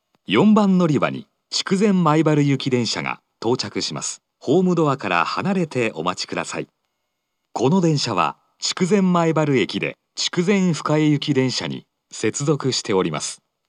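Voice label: approaching Maebaru, station announcement, Fukuoka city subway; 接近-筑前前原行（筑前前原駅で筑前深江行き接続）; 福岡市地下鉄 空港線・箱崎線 中洲川端駅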